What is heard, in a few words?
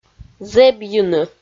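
"зэбйыны", Komi, female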